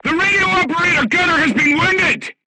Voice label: voice message machine gunner wounded, crew tanks portuguese